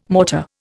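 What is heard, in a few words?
"mortar", Indian English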